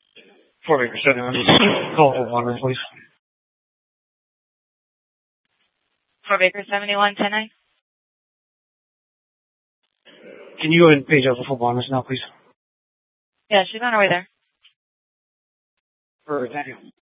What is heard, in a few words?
Superstition Dispatch, Mesa PD